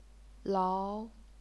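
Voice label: "lao", Chinese, second tone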